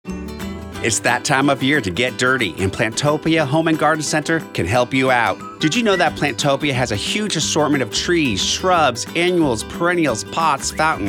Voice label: spring commercial